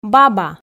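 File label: "баба" Komi, female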